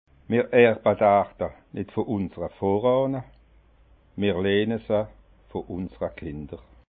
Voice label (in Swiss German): "arda", alsace